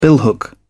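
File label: "billhook", English, uk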